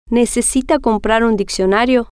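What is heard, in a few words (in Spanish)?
necesita Comprar Diccionario, spanish, female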